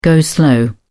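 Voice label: "go-slow", British English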